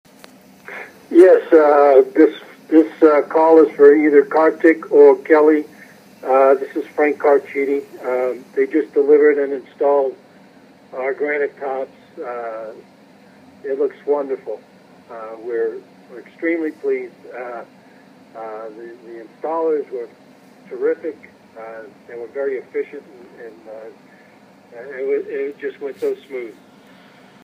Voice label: Voicemail